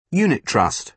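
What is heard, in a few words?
"unit trust", British English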